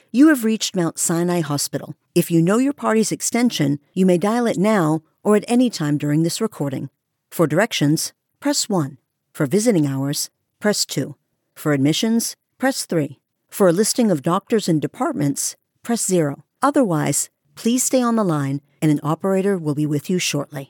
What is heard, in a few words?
IVR; Mt Sinai